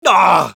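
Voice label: negative vocalization, Spanish; Scout; "¡Aaaggg!"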